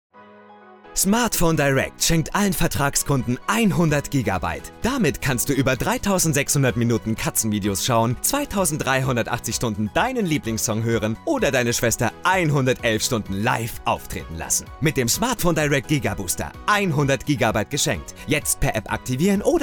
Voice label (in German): werbung smartphone